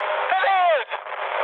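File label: German bomb failure mood high, speech; War Thunder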